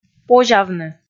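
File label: "позявны", Komi, female; kpv audio dict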